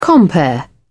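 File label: "compere", British English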